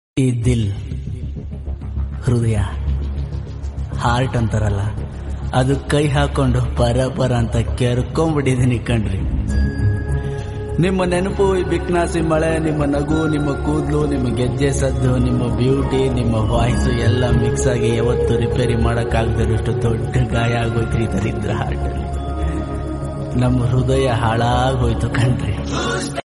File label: male dialogue; ringtone